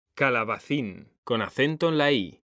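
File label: "calabacín", Spanish, male; mekanta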